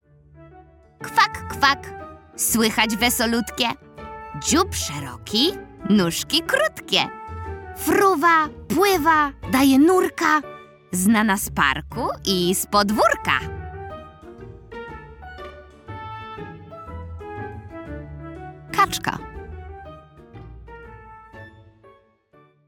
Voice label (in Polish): narracja; czasopismo mis; lato; zagadka